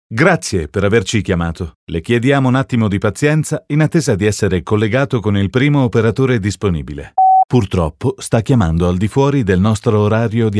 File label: ITA IVR